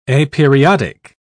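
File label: "aperiodic", British English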